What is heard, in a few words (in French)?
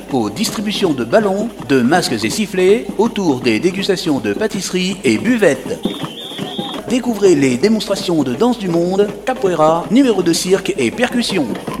message sonore carnaval; coulounieix chamiers